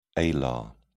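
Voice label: "alar", British English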